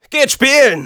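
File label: battle cry, German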